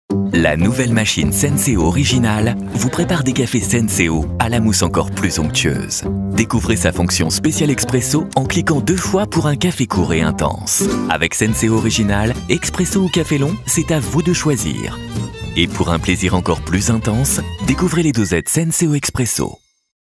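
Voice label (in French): chaleureux enthousiaste, Comédien voix off, publicité; Senseo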